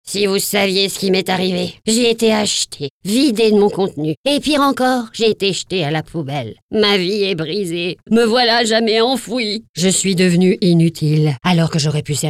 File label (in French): spot radio recyclage verre; campagne de communication